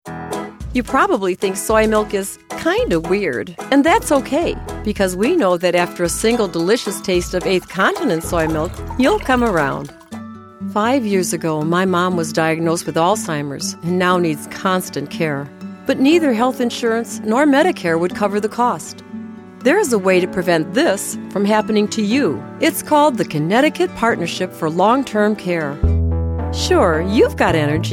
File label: commercial